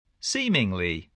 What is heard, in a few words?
"seemingly", english spelling